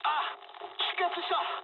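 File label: Japanese damaged pilot mood high; War Thunder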